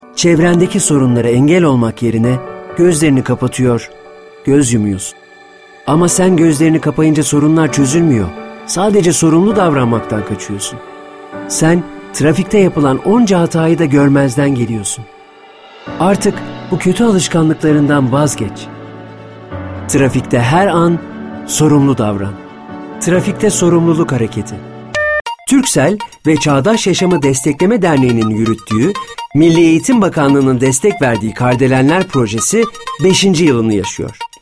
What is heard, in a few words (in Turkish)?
reklam